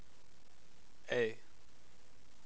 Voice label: Vowels voiced a